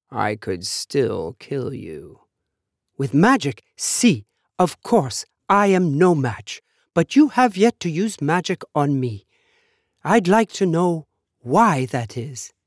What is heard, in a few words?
sample FR 2 Males